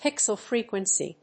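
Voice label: "pixel frequency", English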